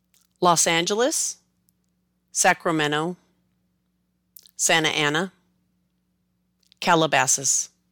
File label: Los Angeles to Calabasas, Spanish words used in English